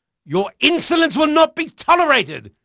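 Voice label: English male; Pirates Of The Caribbean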